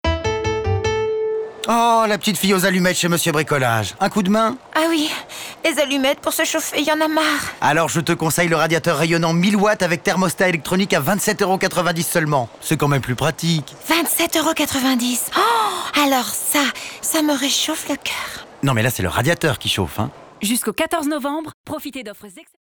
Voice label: comédie fille aux allumettes; Mr Bricolage

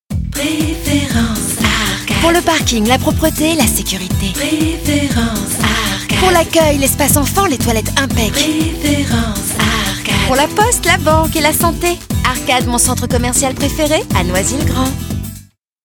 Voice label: feminine conviviale; Arcade

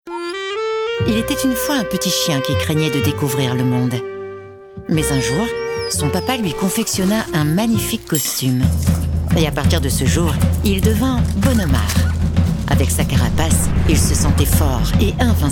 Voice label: évocation, historique, voix off, comédienne; il était une fois; Spot TV; Bon'homard

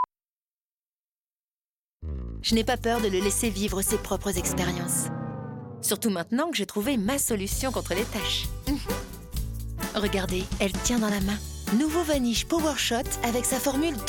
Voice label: maman, voix off comédienne; Vanish

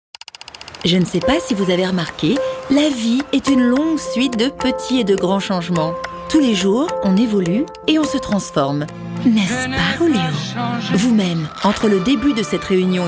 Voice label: Film Web narrative complice rigolote, voix off comédienne; Cora

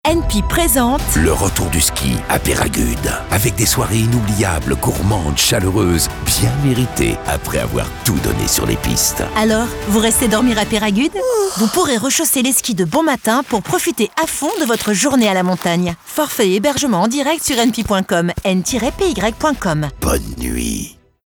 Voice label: Spot radio Tonique rapide; NPY Peyragudes

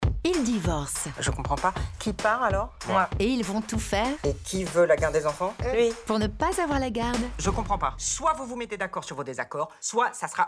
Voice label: Ciné Spot Radio amusée douce; Papa ou Maman

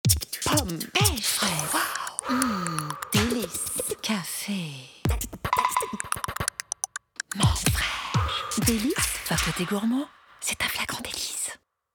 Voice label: voix rythmees jouees signature sussuree

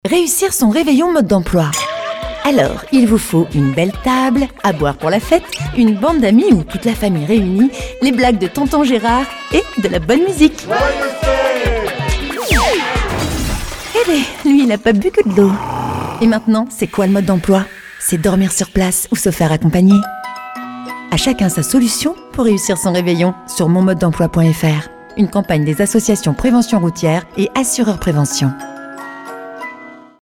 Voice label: Spot radio Tonique informative; Prévention Routière

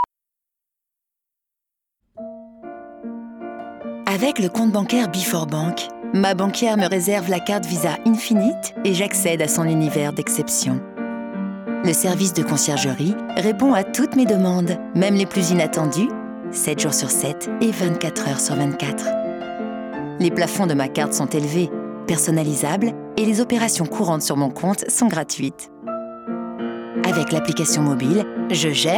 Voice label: contente d'elle feminine; BForBank

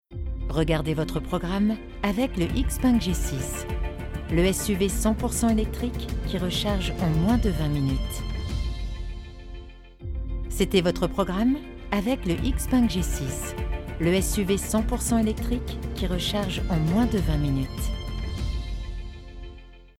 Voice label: droite, voix off, comédienne; Xpeng G6; BB TV

